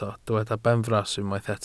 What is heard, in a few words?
"benfras", Welsh